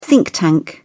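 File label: "think tank", British English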